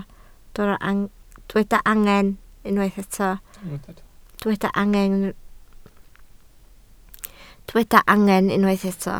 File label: "angen", Welsh